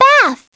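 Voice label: "bath", English; Treasure Galaxy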